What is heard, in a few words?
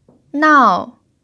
"nao4", chinese pinyin